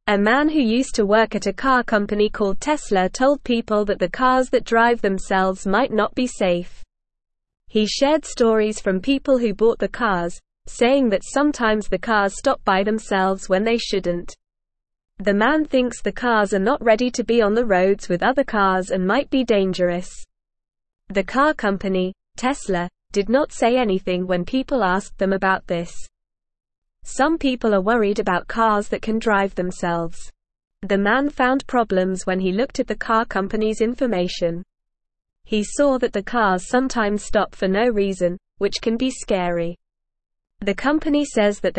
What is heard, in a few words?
English Beginner NORMAL Reading; Cars That Drive Themselves Might Not Be Safe; English Newsroom